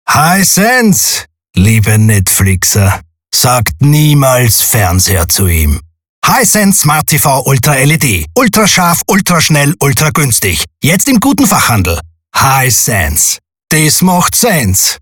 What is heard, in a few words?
Radio Spot; Hisense